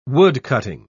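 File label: "woodcutting", British English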